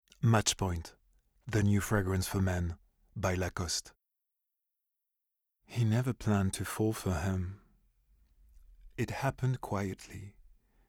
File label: English